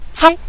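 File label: "cai", Mandarin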